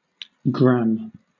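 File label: "gramme", British English; pronunciations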